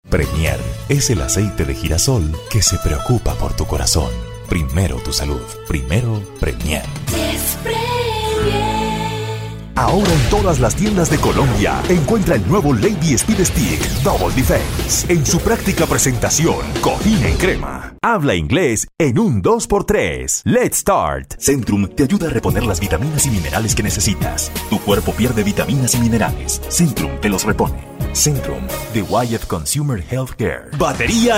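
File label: Spanish, commercial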